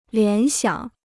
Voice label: "联想", Chinese

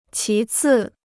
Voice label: "其次", Chinese

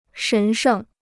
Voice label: "神圣", Chinese

